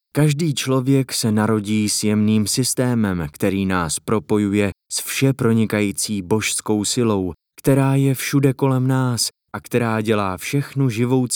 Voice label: czech audiobooks